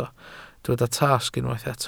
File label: "tasg", Welsh